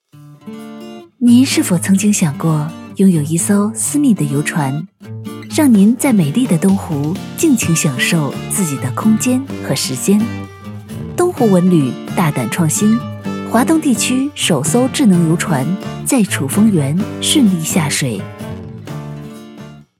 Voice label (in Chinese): 女 素人独白; 曾经想过